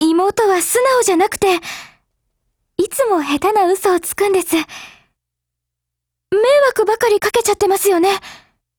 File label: DIALOGUE JP; Carcano1891